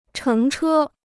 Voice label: "乘车", Chinese

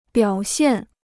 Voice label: "表现", Chinese

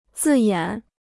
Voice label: "字眼", Chinese

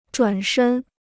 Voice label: "转身", Chinese

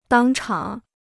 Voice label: "当场", Chinese

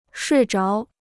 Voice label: "睡着", Chinese

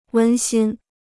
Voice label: "温馨", Chinese

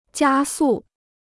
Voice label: "加速", Chinese

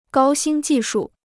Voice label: "高新技术", Chinese

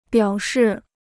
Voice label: "表示", Chinese

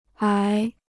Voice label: "癌", Chinese

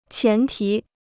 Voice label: "前提", Chinese

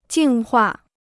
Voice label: "净化", Chinese

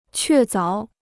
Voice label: "确凿", Chinese

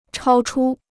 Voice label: "超出", Chinese

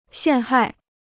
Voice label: "陷害", Chinese

